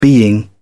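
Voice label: "being", British English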